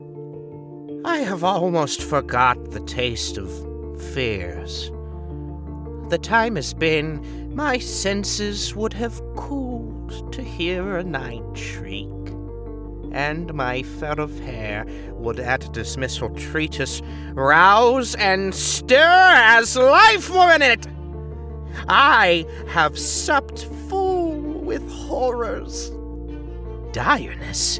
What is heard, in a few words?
Villain Voice